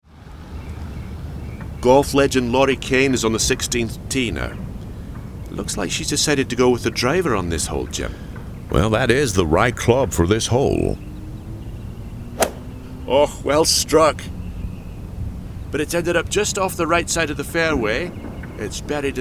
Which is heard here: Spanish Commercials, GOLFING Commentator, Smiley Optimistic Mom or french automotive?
GOLFING Commentator